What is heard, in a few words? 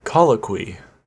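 "colloquy", US English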